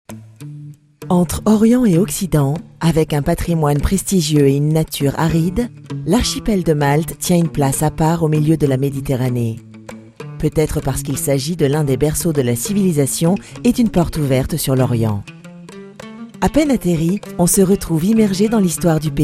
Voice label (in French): Naturel souriant; voix off; Malte